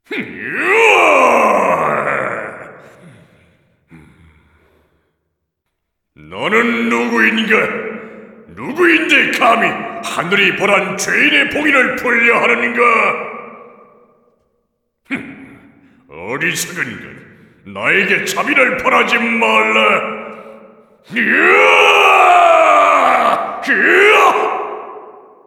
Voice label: cinematic voice; lineage2